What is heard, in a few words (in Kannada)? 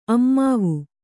"ಅಮ್ಮಾವು", Kannada